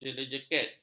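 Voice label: "jeleket", Malay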